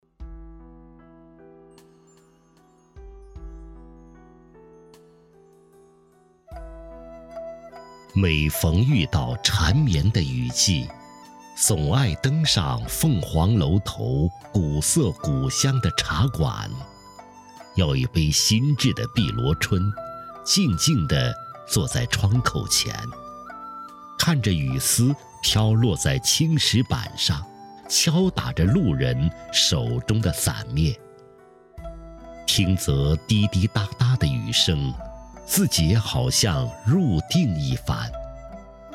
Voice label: 男, 宣传片; 凤凰古镇

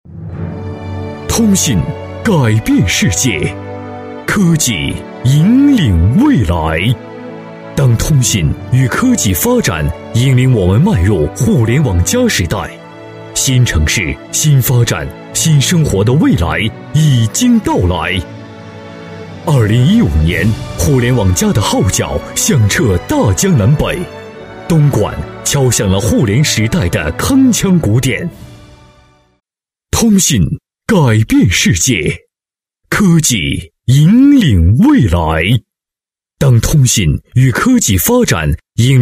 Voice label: 男 专题 通信 互联网加, 国语男声配音

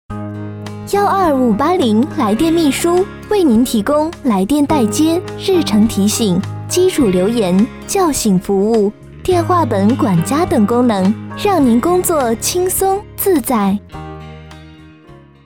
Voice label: 女 彩铃 温暖彩铃

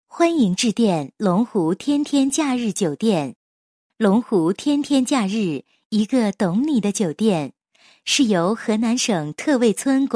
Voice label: 女 彩铃, 国语女声配音; 龙湖天天假日酒店

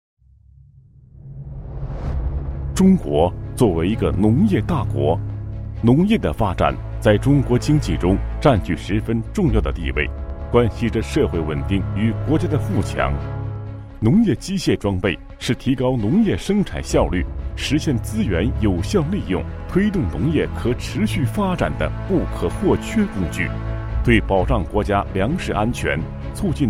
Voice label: 男 专题 农业机械 深松, 国语男声配音

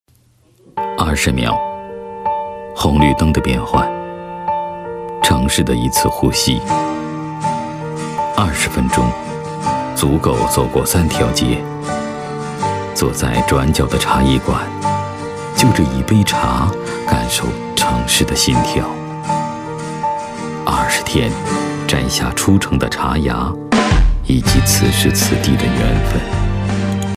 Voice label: 男 独白自白 茶业 生活化语气, 国语男声配音 浑厚低沉; 永川

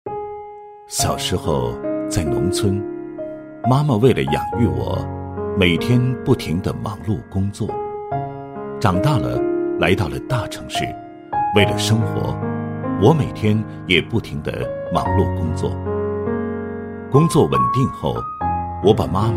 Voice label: 男-旁白; 太和 自在城宣传

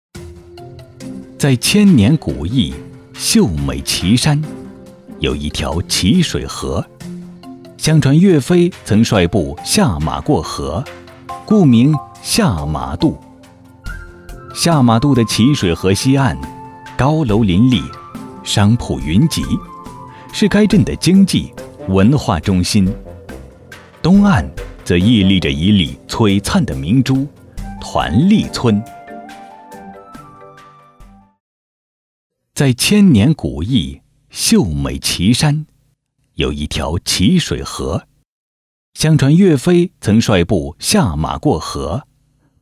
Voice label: 男 专题 舌尖感

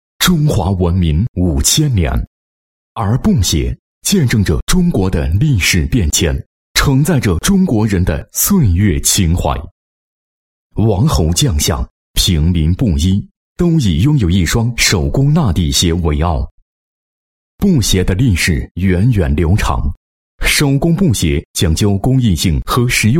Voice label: 男 专题 品牌专题宣传; 王布鞋

